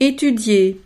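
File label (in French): "etudier", French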